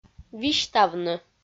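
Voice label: "висьтавны", Komi, female; audio dict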